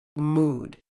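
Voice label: "mood", British English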